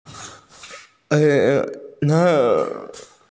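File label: "ear", Mandarin; er3duo5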